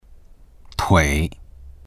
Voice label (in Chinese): "tui3", voices; 腿; （足首から上の）足